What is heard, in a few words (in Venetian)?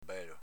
"belo", Veneto